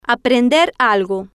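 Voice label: "aprender algo", Spanish, female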